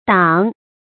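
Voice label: "dang" third tone, pinyin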